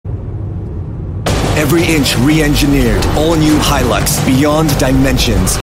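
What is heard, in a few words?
Asian English TV commercial; Toyota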